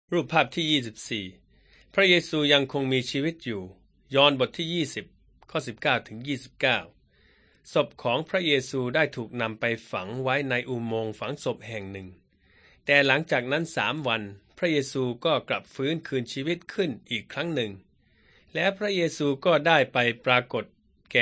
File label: beginning with god thai central